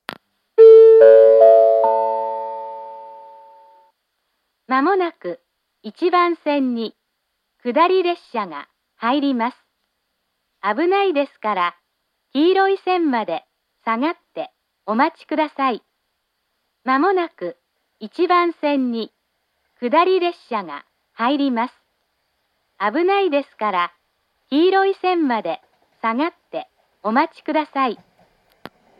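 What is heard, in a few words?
platform 3 approaching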